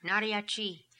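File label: "Naria Chi", pronunciations; Fourth Way; Beelzebub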